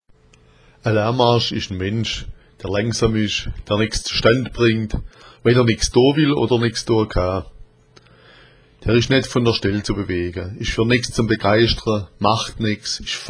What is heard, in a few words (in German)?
lahmarsch, schwaebisch